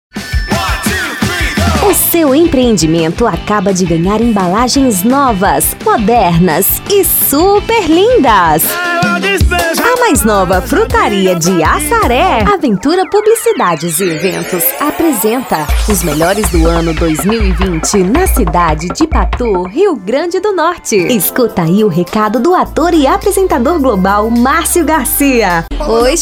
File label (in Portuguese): locutora